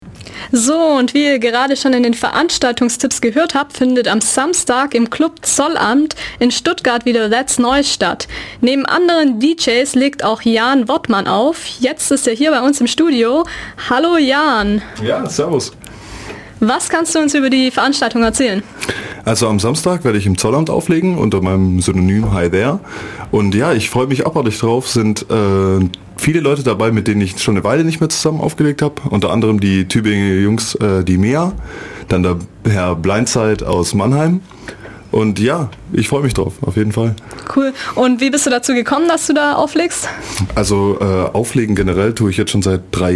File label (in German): interview